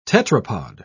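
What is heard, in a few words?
"tetrapod", English, British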